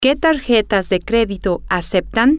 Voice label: which credit cards do you accept, spanish, F

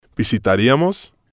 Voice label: "visitariamos", spanish

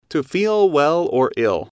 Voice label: "to feel well or ill", English

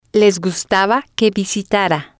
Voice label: "les gustaba que visitara", Spanish

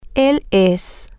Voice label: he is permanent, spanish, F

